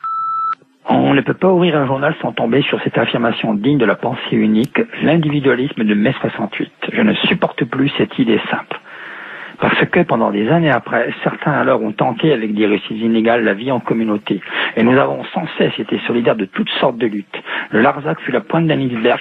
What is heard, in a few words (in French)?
Mai repondeur